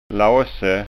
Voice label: "lase", skanska ord

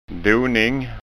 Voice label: "doning", skanska

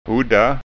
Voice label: "hodda", skanska ord

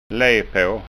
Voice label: "lepa", skanskaord

